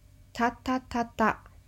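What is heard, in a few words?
"tatatata", Japanese; タタタタ – tatatata; manga onomatopoeia sound effects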